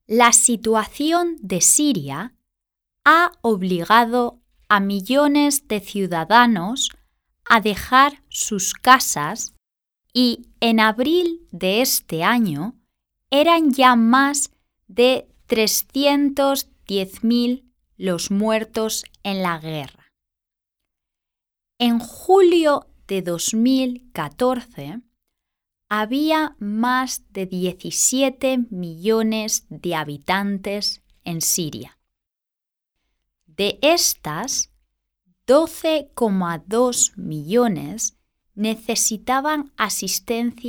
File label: spanish social issues; global sustainability